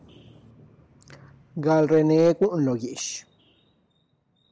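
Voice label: taking the herd to the pastures, Tlacochahuaya Zapotec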